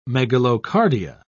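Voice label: "megalocardia", British English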